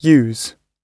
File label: "use", English